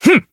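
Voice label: attack, Japanese; joker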